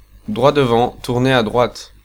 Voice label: Ah Right Turn, French, male